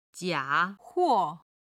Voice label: "jia3huo4", chinese